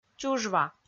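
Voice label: "чужва", Komi, female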